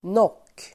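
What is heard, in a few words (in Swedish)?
"nock", Swedish; nock substantiv, ridge; Uttal: [nåk:]; krönet på ett tak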